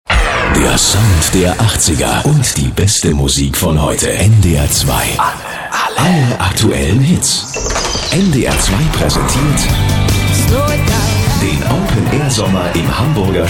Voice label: station voice; ndr2